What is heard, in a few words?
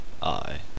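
"eye", male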